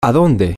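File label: to where, spanish, female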